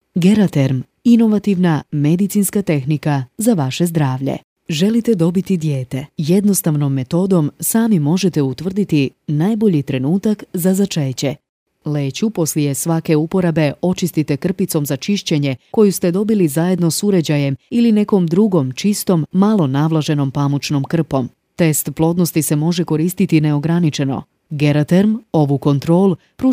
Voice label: female, cro, narration